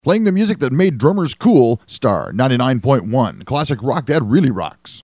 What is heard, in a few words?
sweeper